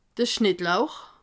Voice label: "schnittlauch", alsace

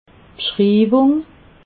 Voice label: "bschriiwung", alsace

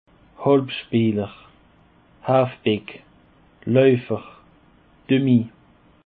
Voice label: "halbspeeler", Alsace

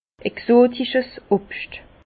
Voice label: "exotisches", Bas Rhin; fruits exotiques; vin

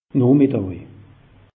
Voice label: "nohmiddaj", alsace; meteo

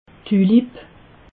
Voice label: "tulipa", alsace

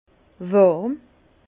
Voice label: "warm", Bas Rhin; vin; chaud

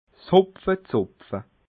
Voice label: "hopfezopfe", alsace

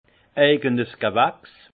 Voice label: "eijes gewachs", alsace; vin; production personnelle du vigneron

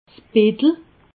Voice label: "bettel", alsace

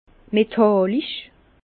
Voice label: "metallisch", Bas Rhin; vin; métallique

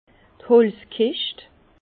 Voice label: "holzkischt", Bas Rhin; vin; caisse en bois

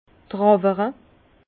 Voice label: "trawere", Bas Rhin; vin

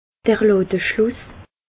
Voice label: "ladeschluss", alsace

